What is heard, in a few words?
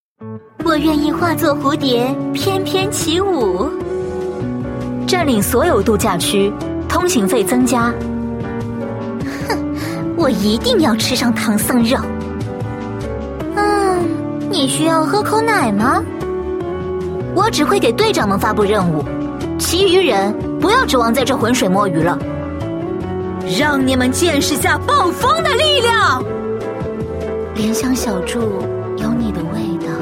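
female young woman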